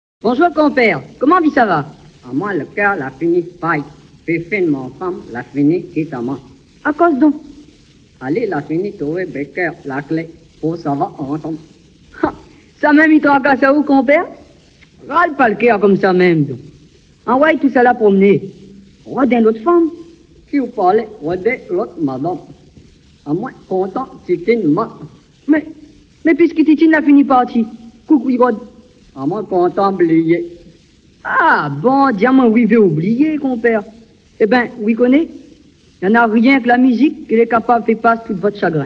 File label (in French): Dans la boutique chinoise dialog